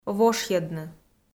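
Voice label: "вошйӧдны", Komi, female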